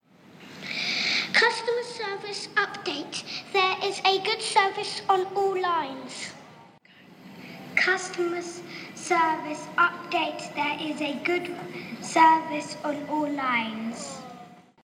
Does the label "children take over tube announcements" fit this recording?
Yes